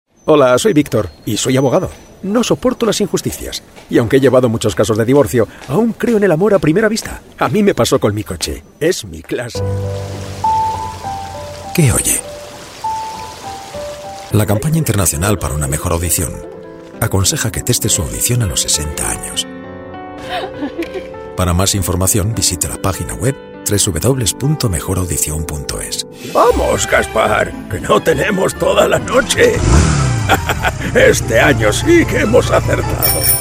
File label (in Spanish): Masculino